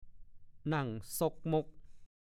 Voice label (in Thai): "นั่งซ่กม่ก", ภาษาโคราช; นั่งหมดอาลัยตายอยาก นั่งซึม นั่งจับเจ่า